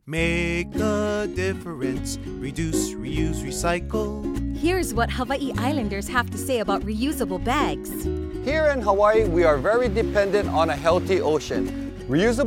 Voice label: Radio ad; Plastic Bag Reduction Ordinance; Clean